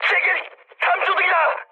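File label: Korean searchlite mood high; speech; War Thunder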